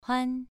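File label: "huan", Mandarin Chinese, second tone, pronunciation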